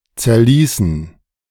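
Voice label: "zerließen", German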